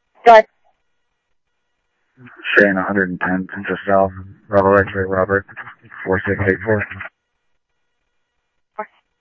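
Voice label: police radio dispatch, ScottsdalePolice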